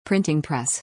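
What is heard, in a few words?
"printing press", English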